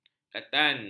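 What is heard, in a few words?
"katan", Malay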